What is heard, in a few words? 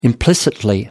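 "implicitly", British English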